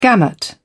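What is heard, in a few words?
"gamut", English, British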